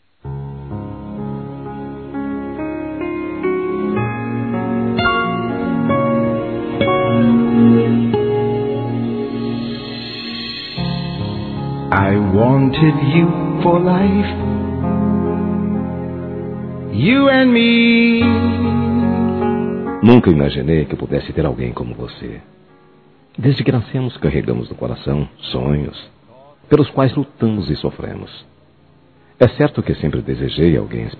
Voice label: Aniversário Romântico Masculino

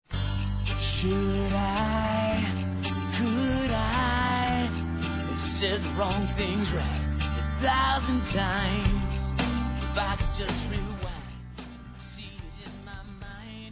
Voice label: ANIVERSÁRIO DE AMIGA M; Telemensagens 2017; Aniversários; Aniversário de Amigos

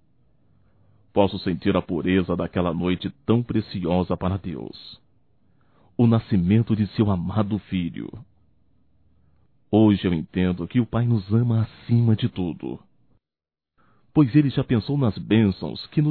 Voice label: NATAL NEUTRO; masc; Telemensagens 2017; Natal & Ano Novo

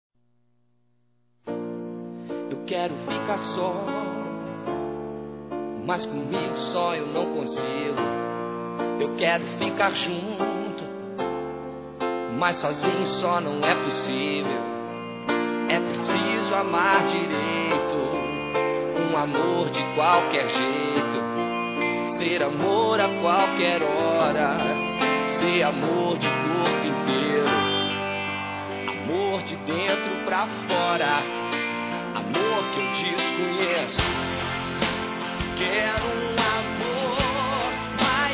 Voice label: Suave Feminino, Telemensagens Românticas

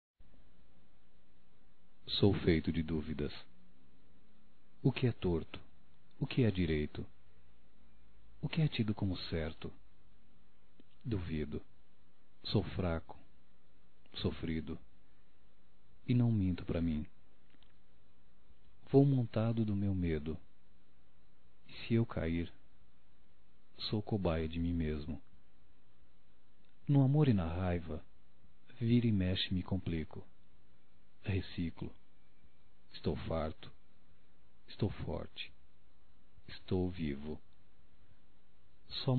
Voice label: Indecisão Masculino; Românticas

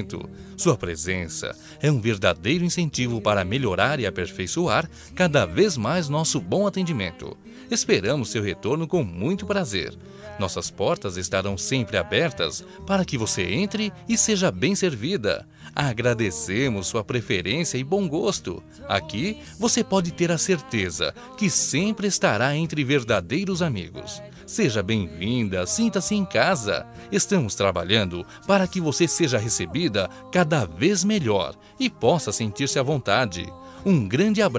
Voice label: Cliente secretaria, Telemensagens, masc; Empresarial; Telemensagens 2017

